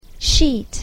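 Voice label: "sheet", English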